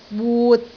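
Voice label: "wut6", Cantonese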